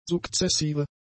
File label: "sukzessive", German; adjectives